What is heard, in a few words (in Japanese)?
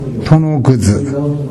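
"tonoguzu", 南山形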